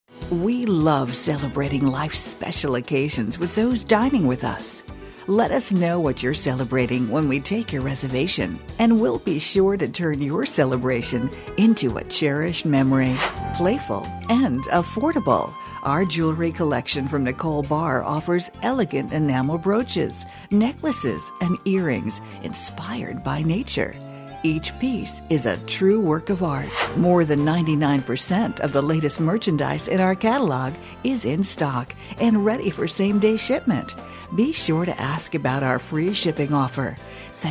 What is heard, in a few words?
female